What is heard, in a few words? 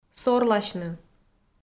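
"сорласьны", Komi-Zyrian, female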